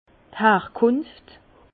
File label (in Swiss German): "harkunft", Bas Rhin; vin; appellation